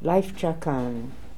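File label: "Lifechakan", pronunciations; Fourth Way; Beelzebub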